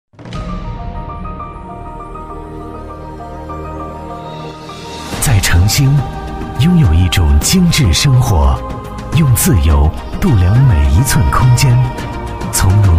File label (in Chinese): 男 广告 大气磁性品质, 国语男声配音; 城果SOHO精装公寓